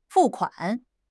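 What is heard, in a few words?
"fu4 kuan3", Chinese; HSK4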